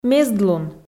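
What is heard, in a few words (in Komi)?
"мездлун", Komi, female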